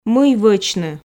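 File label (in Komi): "мый вочны", Komi, female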